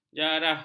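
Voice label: "jarah", Malay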